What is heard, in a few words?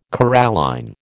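"coralline", American English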